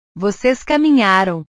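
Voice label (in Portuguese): "vocês caminharam", Portuguese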